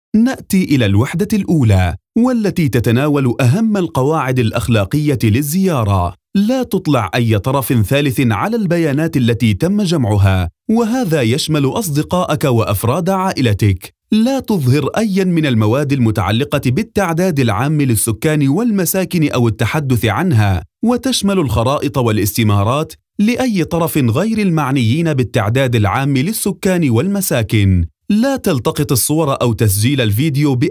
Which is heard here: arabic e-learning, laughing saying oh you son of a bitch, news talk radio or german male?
arabic e-learning